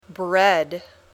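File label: "bread", English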